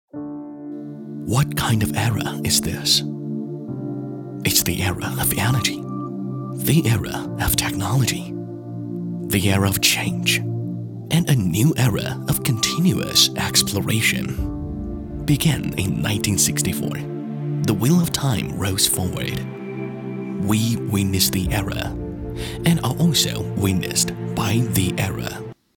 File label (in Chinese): 男 英语 中青年 品质感企宣